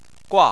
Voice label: "gua4", Chinese Phonetics